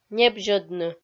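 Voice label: "небзьӧдны", Komi, female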